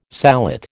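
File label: "sallet", American English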